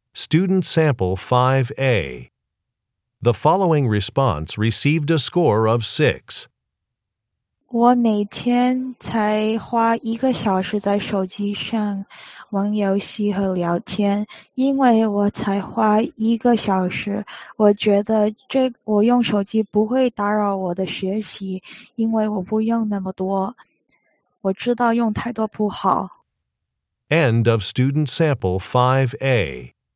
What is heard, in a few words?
chinese conversation